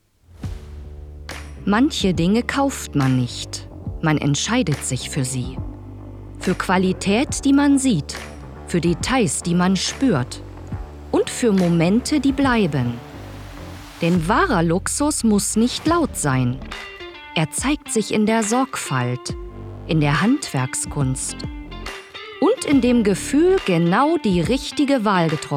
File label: Commercial Premium Brand